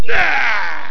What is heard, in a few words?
Warrior Voice